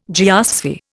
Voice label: "geosphere", Indian

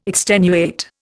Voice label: "extenuate", Indian

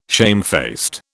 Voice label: "shamefaced", British

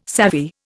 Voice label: "savvy", Indian

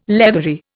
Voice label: "leathery", Indian

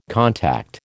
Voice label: "contact", American

